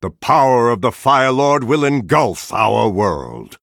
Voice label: Male Night Elf Threaten